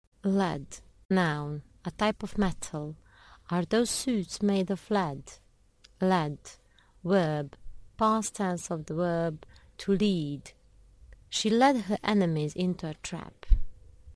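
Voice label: "LEAD", English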